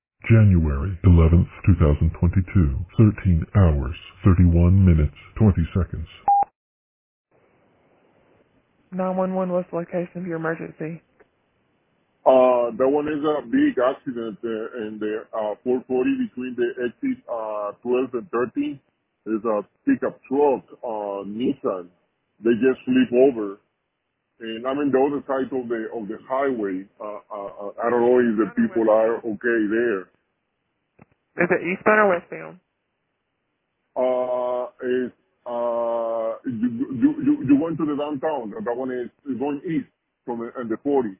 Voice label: 911 call; RALEIGH